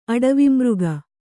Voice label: "ಅಡವಿಮೃಗ", Kannada

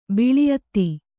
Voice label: "ಬಲ ಅತ್ತೆ", Kannada

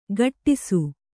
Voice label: "ಘಟ್ಟಿಸು", Kannada

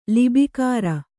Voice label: "ಲಿಬಿಕಾರ", Kannada

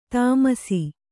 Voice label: "ದಾಮಾಷಾ", Kannada

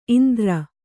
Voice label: "ಇಂದರ", Kannada

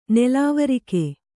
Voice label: "ನಲವರಕೆ", kannada

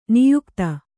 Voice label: "ನಾಯಕಿತಿ", Kannada

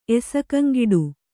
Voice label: "ಎಸಕಂಗಿಡು", kannada

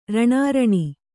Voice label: "ರಣಾರಣಿ", Kannada

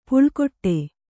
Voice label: "ಪೋಳ್ಗುಟ್ಟು", Kannada

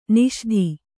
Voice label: "ನಿಷೀಧಿ", Kannada

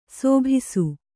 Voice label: "ಶಾಭಾಸ್", Kannada

